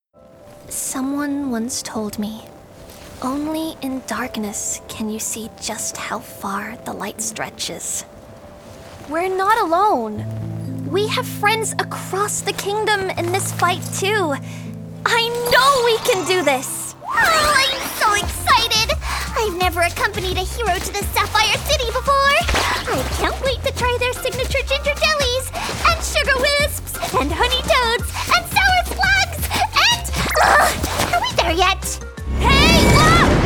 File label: Animation